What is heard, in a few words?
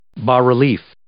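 "bas-relief", English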